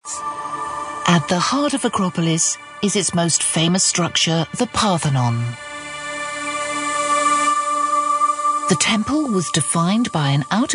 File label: documentary